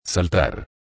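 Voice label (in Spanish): "saltar", Spanish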